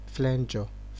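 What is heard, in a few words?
"flenjo", Nigerian Pidgin, male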